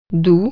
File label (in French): "sweet", French